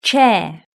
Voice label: "chair", british english